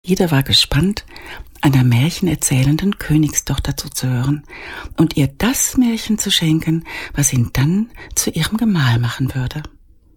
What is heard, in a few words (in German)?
hoerbuch